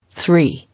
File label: "Three", English